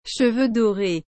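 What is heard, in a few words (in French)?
"cheveux dorés", French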